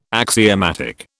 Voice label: "axiomatic", British